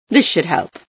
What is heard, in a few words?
this will help, female sci